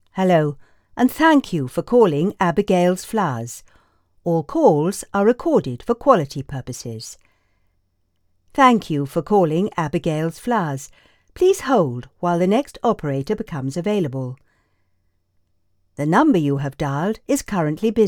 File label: on hold